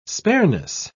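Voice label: "spareness", British English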